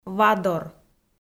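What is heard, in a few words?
"вадор", Komi-Zyrian, female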